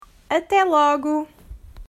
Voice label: "Até Logo", Portuguese